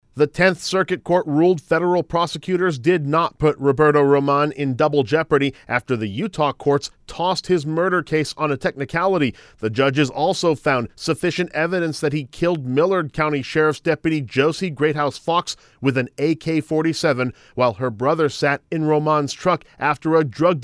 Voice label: VOICE PSA; ROMAN AFFIRMED